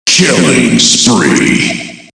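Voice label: "killing spree", male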